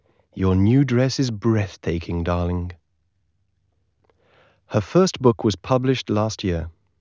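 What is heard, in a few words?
male wideband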